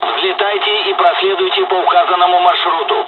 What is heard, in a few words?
mission fly, dialogs russian; War Thunder